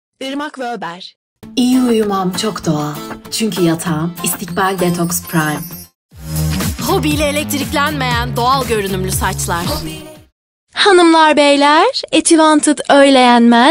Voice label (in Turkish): turkce kadin